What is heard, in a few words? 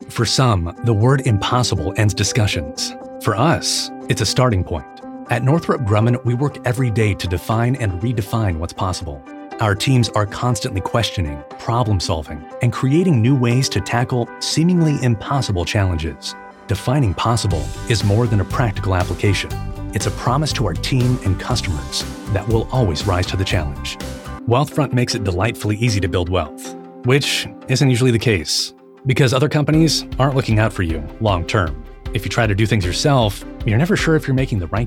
30s Male Narration